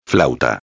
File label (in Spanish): "flauta", espanhol; a separação silábica